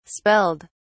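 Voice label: "spelled", English, British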